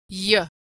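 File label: sound "y", American English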